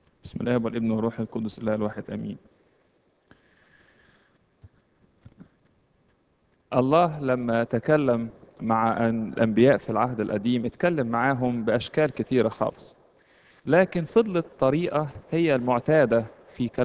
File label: Arabic Closing Sermon